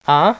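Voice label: "huh", male; voice; Web Clip Empire 150,000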